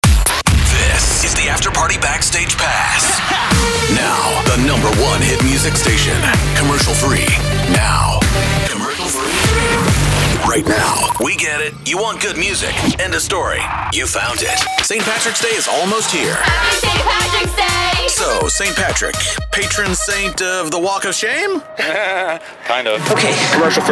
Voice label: CHR Hot AC Voice Imaging